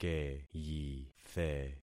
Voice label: "keyithe", concat syllables; Affixing experiment